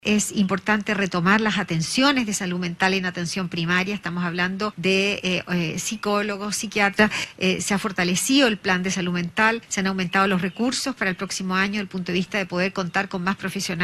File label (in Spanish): cuña